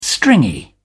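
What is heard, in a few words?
"stringy", British English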